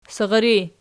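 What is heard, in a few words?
"sirri" nominative, Archi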